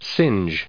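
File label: "singes", US English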